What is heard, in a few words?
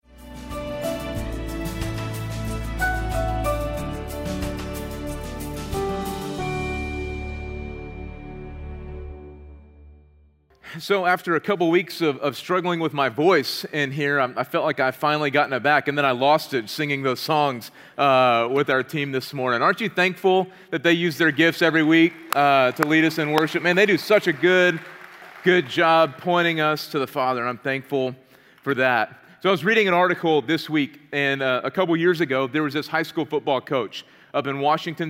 Sermon English